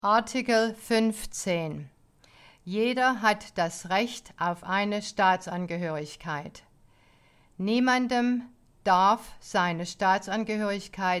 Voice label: German